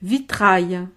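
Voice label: "vitrail", French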